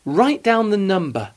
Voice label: "write", English